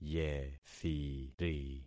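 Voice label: "yethiri", concatenated syllables; Affixing experiment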